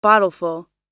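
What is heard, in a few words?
"bottleful", American English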